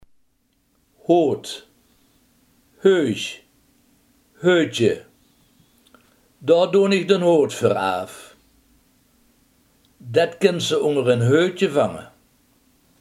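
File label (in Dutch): hoed, Limburgse gesproken woordenboeken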